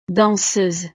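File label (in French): "danseuse", French; profesiones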